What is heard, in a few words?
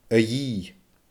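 "a dhiith", Scottish Gaelic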